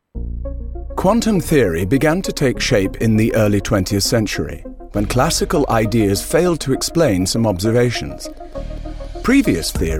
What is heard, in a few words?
documentary; quantum theory